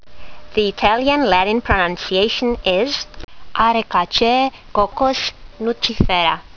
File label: latin; Cocos nucifera